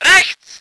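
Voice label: voice german vehicle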